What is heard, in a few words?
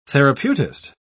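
"therapeutist", British English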